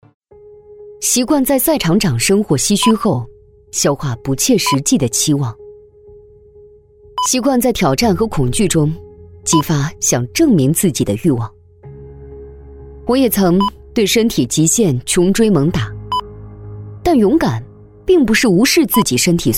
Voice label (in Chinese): 女; 人物; 习惯